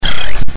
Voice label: evil comply; CAC ASSETS